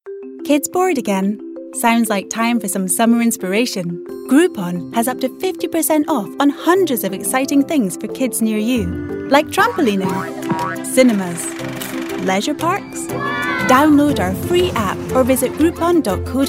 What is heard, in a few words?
Scottish Commercial